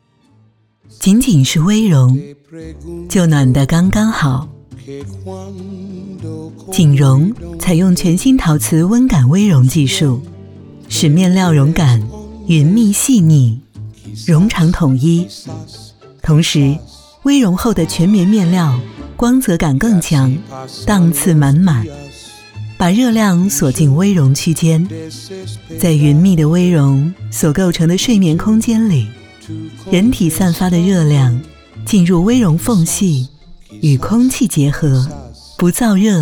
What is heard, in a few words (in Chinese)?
女 广告 成熟知性 微绒被